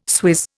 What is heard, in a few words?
"swizz", Indian